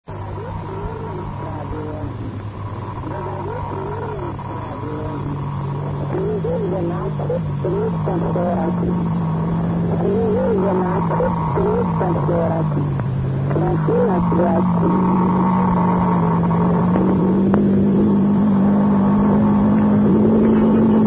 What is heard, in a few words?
minsk radio; europe